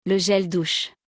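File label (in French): "Le gel douche", French; vocabulaire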